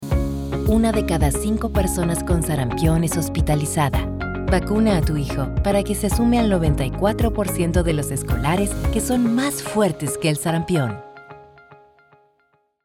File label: spanish latin american medical narration; Buenos Aires, Argentina